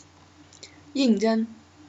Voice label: name mandarin